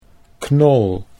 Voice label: "knol", Dutch